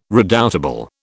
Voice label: "redoubtable", British